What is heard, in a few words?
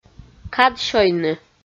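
"кад сёйны" Komi, female; kpv audio dict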